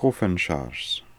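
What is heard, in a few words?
"Kofenshars", pronunciations; Fourth Way; Beelzebub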